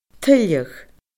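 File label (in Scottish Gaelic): "tuilleadh", Scottish Gaelic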